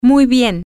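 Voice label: very well, spanish, female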